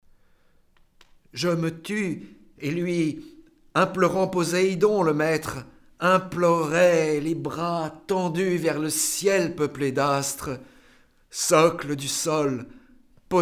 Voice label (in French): prière du cyclope français; Le retour d'Ulysse